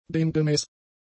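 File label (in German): "demgemäß", german; adjectives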